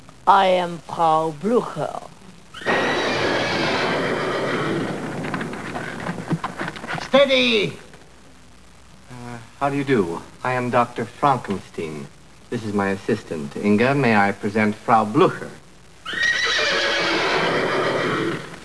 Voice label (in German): frau